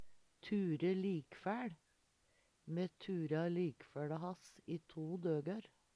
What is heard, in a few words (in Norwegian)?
"ture ligfæL", Numedalsmål